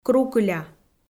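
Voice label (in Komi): "крукыля", Komi-Zyrian, female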